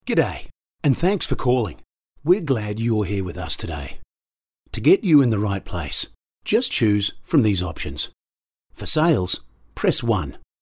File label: Phone Welcome Message